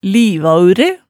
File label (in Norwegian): "livaaure", hemsedalsmaalet tale